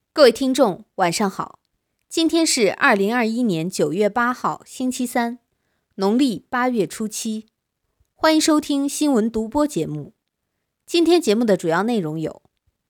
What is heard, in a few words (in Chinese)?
新闻 播报